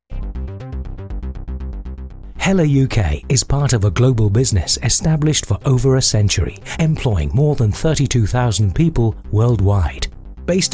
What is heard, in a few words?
English British corporate videos